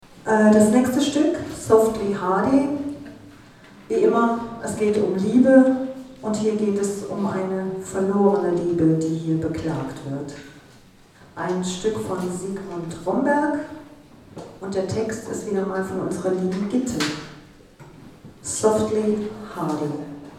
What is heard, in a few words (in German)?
Ansage; Konzert 23. Hermeskeiler Kulturherbst (Johanneshaus, Hermeskeil)